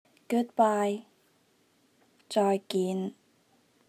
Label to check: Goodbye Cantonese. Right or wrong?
Right